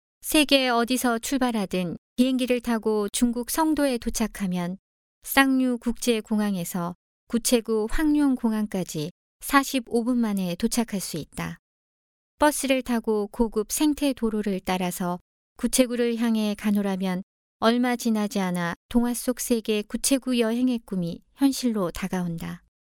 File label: korean girl